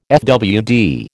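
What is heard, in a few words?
"fwd", American English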